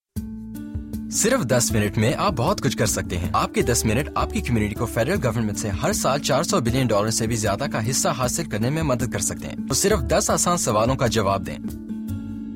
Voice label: Hindi Commercial